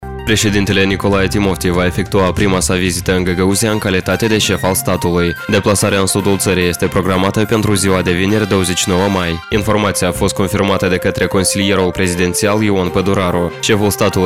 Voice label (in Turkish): moldovca seslendirme erkek sesi